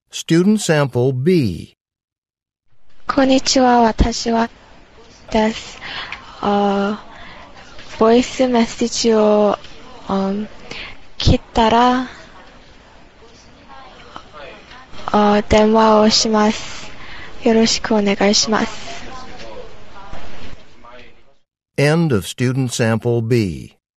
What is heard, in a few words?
japanese return telephone call